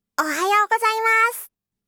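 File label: "ohayou gozaimasu", Japanese; aisatsu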